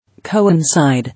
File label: "Coincide", English